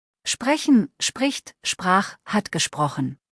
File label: "sprechen", deutsch; verben kurzliste